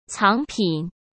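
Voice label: "藏品", Mandarin Chinese; chinese flashcards; hsk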